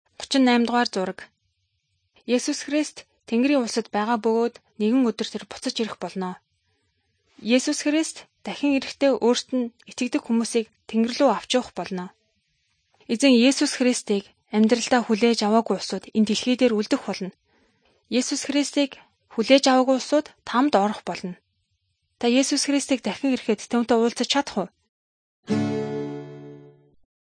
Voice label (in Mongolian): good news mongolian